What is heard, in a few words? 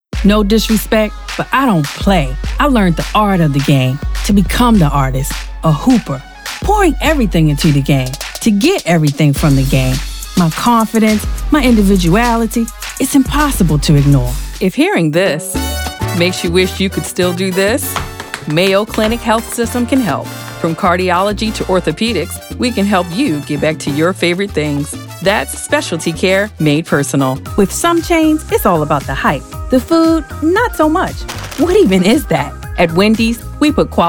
vo commercial; global voice acting academy